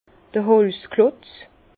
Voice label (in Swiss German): "holzklotz", Bas Rhin; vin; billes de bois